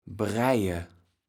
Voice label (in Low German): "breije", kaevels platt